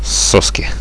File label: oh yes, radio bot; Reallite